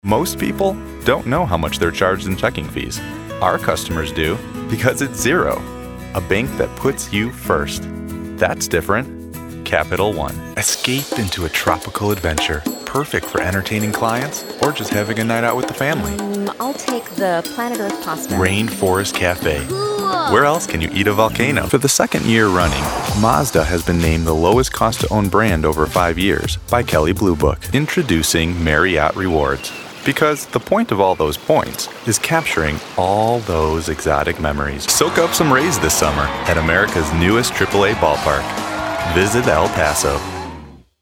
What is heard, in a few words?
Commercial